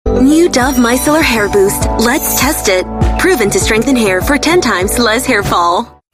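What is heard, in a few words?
Asian English micellar hair boost; Dove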